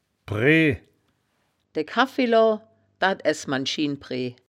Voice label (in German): "breh", wittlicher